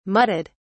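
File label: "mudded", British English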